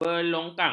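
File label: "belongkang", Malay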